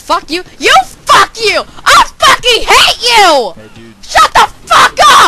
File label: squeaker rage; freak fortress 2